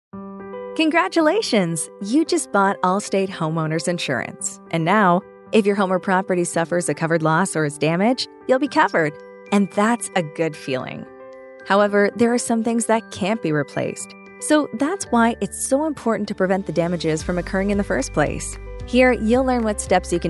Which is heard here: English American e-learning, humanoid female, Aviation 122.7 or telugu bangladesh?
English American e-learning